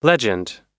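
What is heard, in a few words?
"legend", American English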